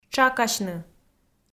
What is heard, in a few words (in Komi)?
"тшакасьны", Komi